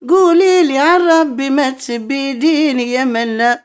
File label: OLD WOMAN, Arabian Traditions